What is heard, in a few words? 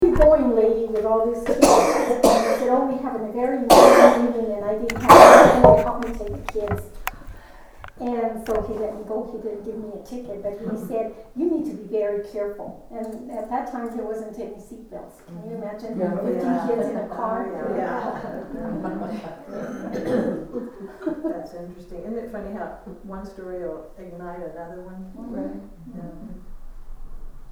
police warning; Voices de Aquí